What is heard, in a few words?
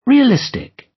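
"realistic", British; Life, leisure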